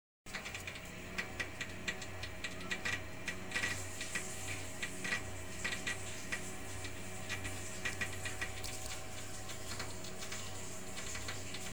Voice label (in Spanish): nota de voz, ruido